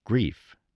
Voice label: "grief", spelling